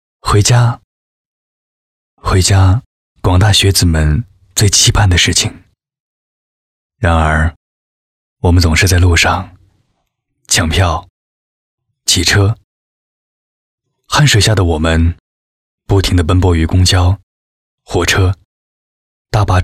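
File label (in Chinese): 男【微电影旁白】抢票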